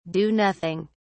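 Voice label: "do nothing", British English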